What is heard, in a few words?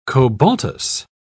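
"cobaltous", British English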